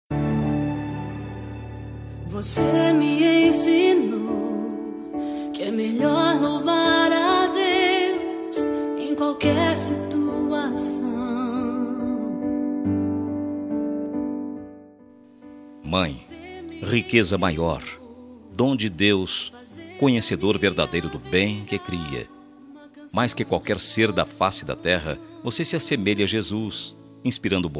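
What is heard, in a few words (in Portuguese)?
DIA DAS MAES EVANGELICO male, telemensagem; DIA DAS MAES EVANGELICO PL MASC; telemensagem dia das maes evangelica familia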